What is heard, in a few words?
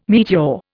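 "meteor", English, Indian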